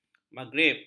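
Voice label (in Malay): "maghrib", Malay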